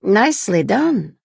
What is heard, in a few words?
Khajiit female nice play